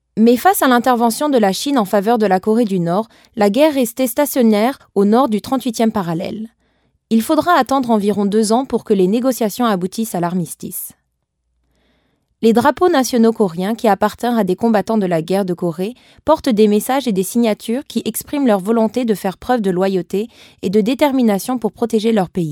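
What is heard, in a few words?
French, female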